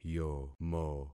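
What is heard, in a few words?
"yomo", concatenated syllables; Affixing experiment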